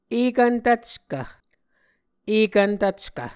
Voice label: "ekvn tvckv", Muscogee

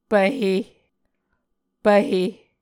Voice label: "pvhe", Muscogee

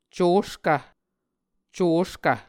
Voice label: "coskv", Muscogee

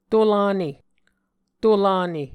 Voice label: "to lane", Muscogee

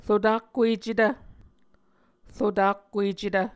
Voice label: "rotakkuecetv", Muscogee; Mvskoke Words with Repeated Consonants; Martin, Jack B., and Margaret McKane Mauldin. 2023. A Dictionary of Creek/Muskogee

